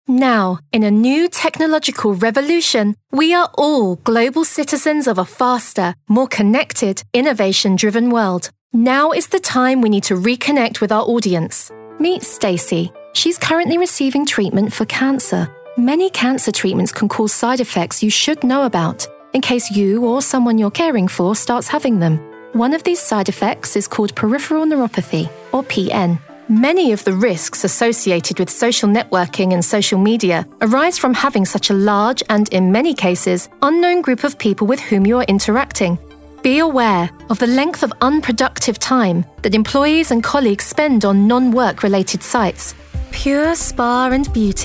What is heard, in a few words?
Corporate